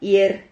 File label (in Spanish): "hier", Silabas